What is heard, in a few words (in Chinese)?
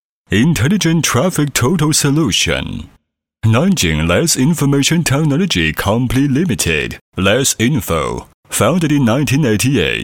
英文 音样 大气 厚重